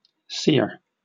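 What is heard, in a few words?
"sear" English, British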